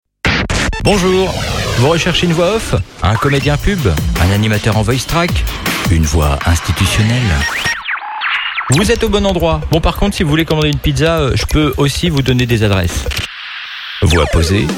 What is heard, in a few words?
accapella